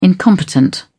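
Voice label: "incompetent", British English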